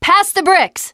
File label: generic, teengirl, voice